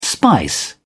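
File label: "spice", British English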